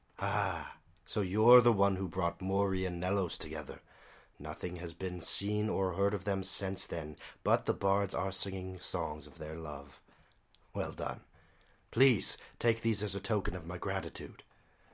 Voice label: breton, breton voice auditions